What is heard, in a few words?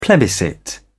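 "plebiscite", British English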